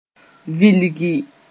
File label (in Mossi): "vilgi", moore